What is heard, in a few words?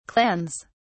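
"clans", British English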